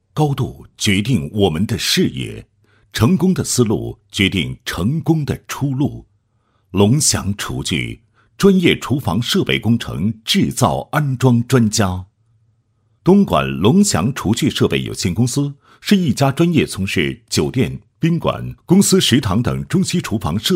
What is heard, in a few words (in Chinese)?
男 企业介绍 专业厨房设备工程制造安装专家介绍配音; 龙翔厨具